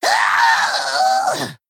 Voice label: Male Kobold Death